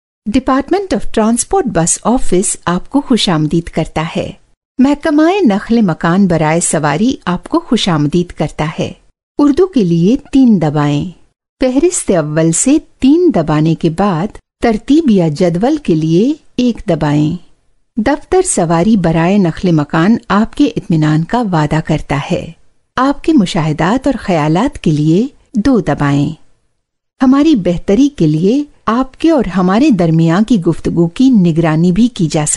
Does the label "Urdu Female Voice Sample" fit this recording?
Yes